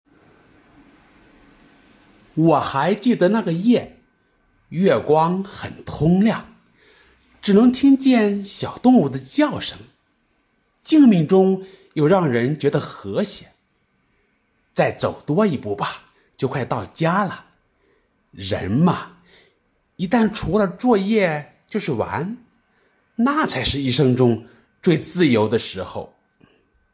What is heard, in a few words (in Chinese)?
读句子配音